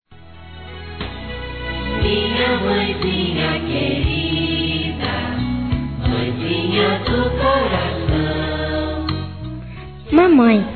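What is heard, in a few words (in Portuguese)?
MÃE VOZ INFANTIL, Masculino; Dia das Mães; Telemensagens 2017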